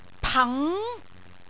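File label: "pang2", Cantonese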